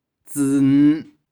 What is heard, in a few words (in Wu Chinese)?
"豬兒", 台州語; 豕仔。[piglet](n.); 玉環台山